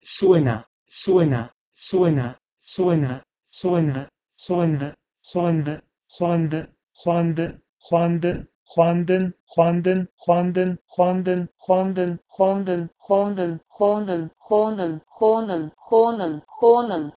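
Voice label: Proto-Indo-European "swenh2" to Ossetian "xonyn"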